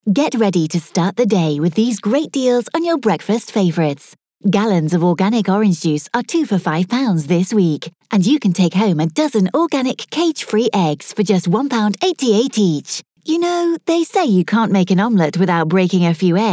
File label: Casual Conversational